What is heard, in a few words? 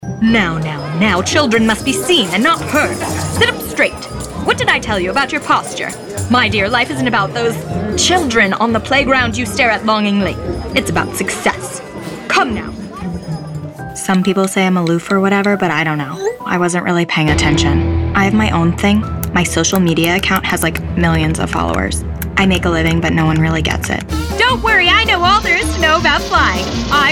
animation